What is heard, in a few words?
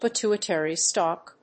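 "Pituitary Stalk", English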